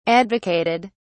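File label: "advocated", British English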